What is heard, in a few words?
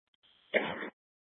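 police dispatch, scanner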